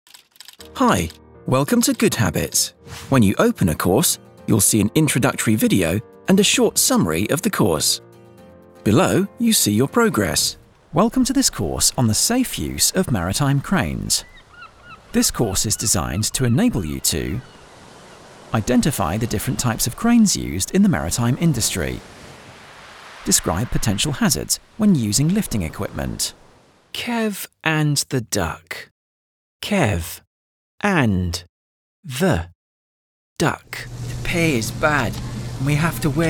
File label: young UK male voice over artist E-Learning